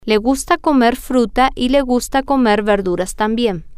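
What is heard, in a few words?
She likes to eat fruit and she likes to, Spanish, female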